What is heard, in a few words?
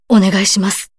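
story, Japanese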